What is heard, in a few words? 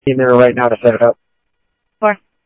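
Sheriff West County, scanner audio trunk; ThompsonPeakScot; MCSO W TAC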